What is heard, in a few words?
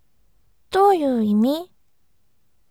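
voice, bright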